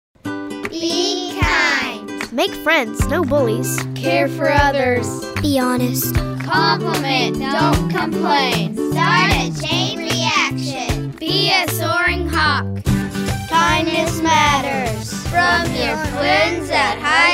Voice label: Kindness Commercial

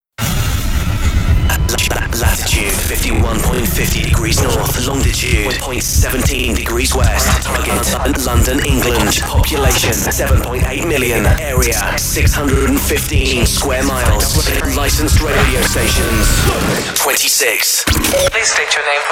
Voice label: VOICEOVERS; KISS

